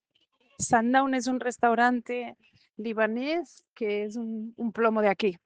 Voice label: Voice message